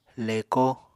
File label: "leko", Apatani